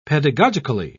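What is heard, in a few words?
"pedagogically", English, British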